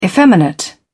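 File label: "effeminate", British English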